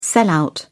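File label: "sellout", British English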